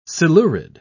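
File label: "silurid", British English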